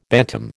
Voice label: "bantam", American